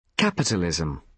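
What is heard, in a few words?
"capitalism", British English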